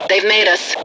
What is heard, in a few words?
detected, English